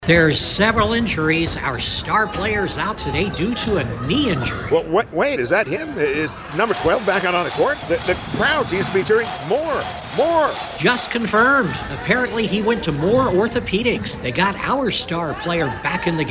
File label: Sports Announcers